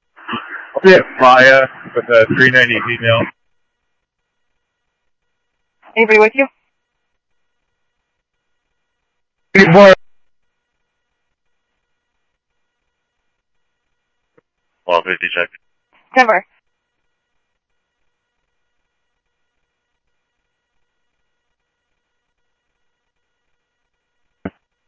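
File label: police radio dispatch, Scottsdale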